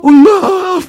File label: negative vocalization